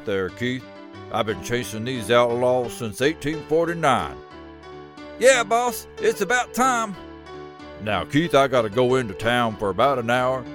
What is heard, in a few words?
Sheriff and Jailkeeper animation middle age